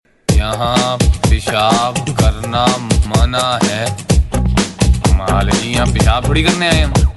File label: peshab karna mana, Hindi Funny Ringtones